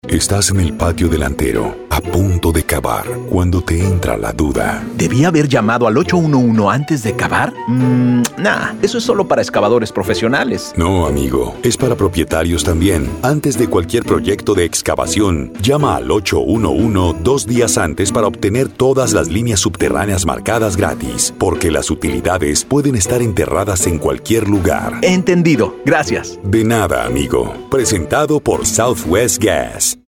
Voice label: Radio Mix Spanish; 811 Dude